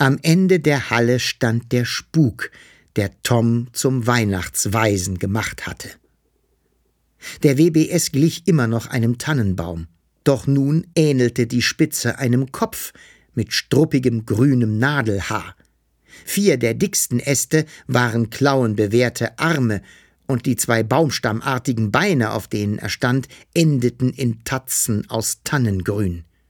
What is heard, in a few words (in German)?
Hoerbuch Hoerprobe; Gespensterjaeger und der Weihnachtsspuk